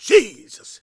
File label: preachin, gospel preacher